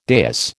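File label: "dais", American English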